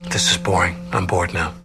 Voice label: bored; 30 rock